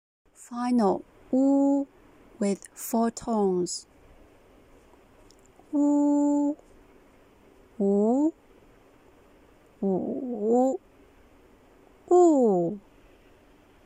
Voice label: "wu u" with 4 tones, Mandarin

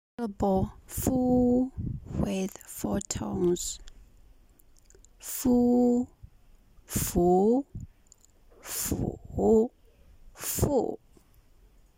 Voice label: fu with 4 tones, Mandarin

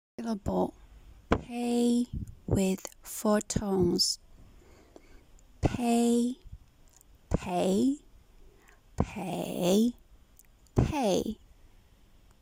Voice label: "pei" with 4 tones, Mandarin

